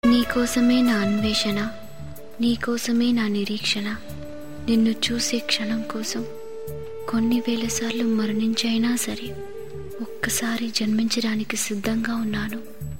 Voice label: Female Dialogue Ringtone